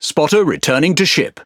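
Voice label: Speech, British English; ConsPilots Corr Return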